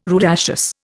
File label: "rudaceous", Indian